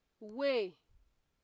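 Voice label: "way", Nigerian Pidgin, female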